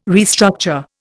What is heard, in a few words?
"restructure", Indian